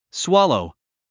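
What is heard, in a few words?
"swallow", English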